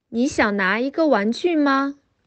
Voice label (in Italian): Cinese